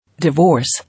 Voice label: "Divorce", English

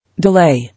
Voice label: "Delay", English

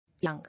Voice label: "Young", English

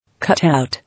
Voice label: "Cut-out", English

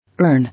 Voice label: "Earn", English

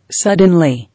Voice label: "Suddenly", English

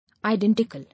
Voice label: "Identical", English

